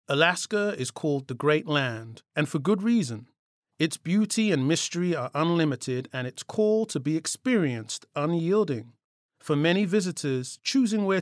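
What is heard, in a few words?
English, British, male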